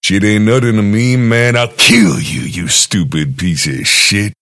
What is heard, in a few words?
desperation; killsounds; drifter; drifter flow